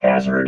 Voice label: "hazard", robot